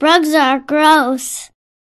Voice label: human girl